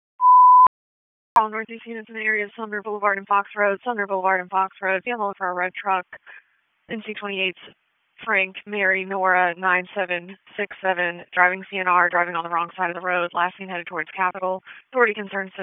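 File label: Police Dispatch, p25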